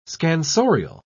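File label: "scansorial", British English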